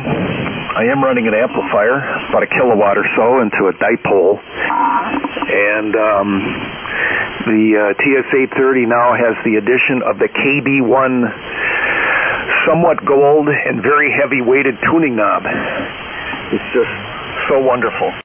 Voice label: Kenwood TS-830 MC-50 AL-80 Amp and Loop Antenna